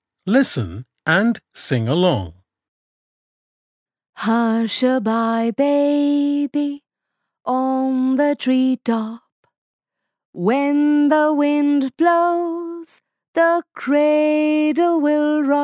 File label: Windy Days Reading Text; FSPE 1 Unit 9